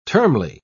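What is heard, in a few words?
"termly", English, British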